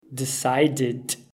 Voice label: "decided", English